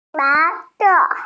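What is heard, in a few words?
"bai2 se4", Mandarin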